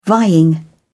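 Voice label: "vying", British English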